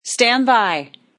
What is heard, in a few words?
A female news anchor says, "Stand By"; Talking Sound Effects